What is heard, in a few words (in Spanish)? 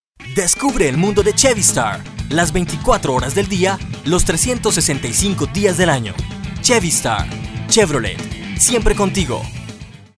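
Voz Masculina Español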